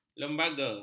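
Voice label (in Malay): "lembaga", Malay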